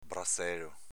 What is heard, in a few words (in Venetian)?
"brazelo", Veneto